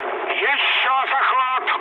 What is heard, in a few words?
bomb failure, mood med, speech ru; War Thunder